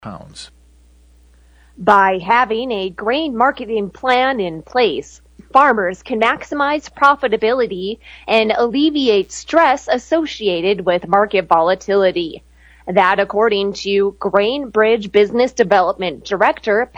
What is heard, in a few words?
air check; GrainBridge